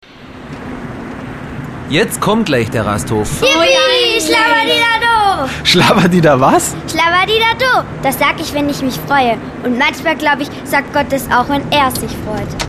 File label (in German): sprechszene